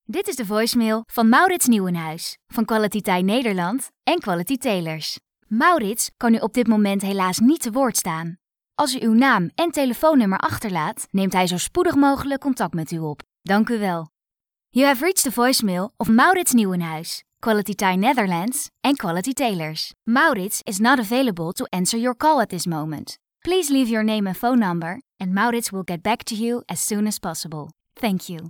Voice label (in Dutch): Voicemail